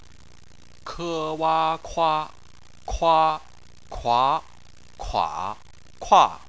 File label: "kua", chinese